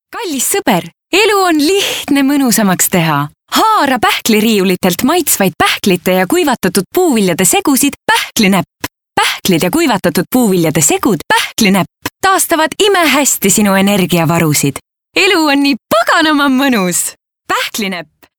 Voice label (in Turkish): Estonca Kadin